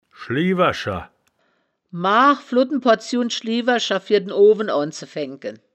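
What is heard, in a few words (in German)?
"schliewer scher", wittlicher woerterbuch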